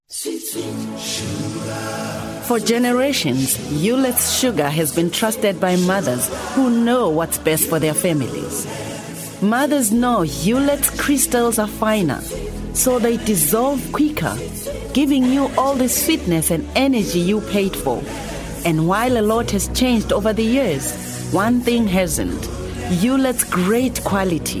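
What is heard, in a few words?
F, English, South African, female, comm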